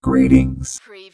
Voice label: Robot voice, greetings; lynne publishing

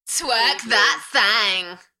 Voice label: UK female, Enthusiastic; beanstalk audio

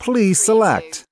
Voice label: US male please select; lynne publishing

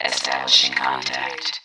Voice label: Robot voice, "establishing contact"; lynne publishing

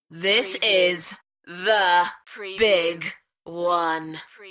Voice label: UK female; beanstalk-audio

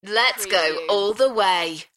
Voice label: UK female; beanstalk audio

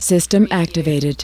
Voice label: US female system activated; lynne publishing

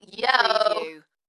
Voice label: UK female "Yo" Dalekness; beanstalk audio

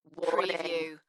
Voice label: UK female, Warning, Dalekness; Tech / Sci-fi; beanstalk audio

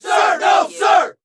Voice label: Soldiers Voices

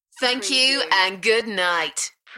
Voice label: UK female Thank you and good night; beanstalk audio

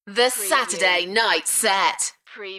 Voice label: UK female; beanstalk-audio

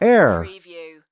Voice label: US male "error"; lynne publishing

